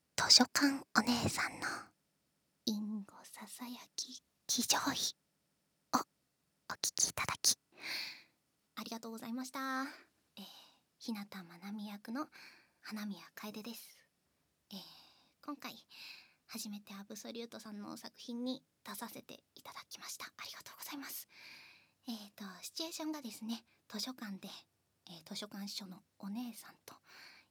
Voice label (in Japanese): フリートーク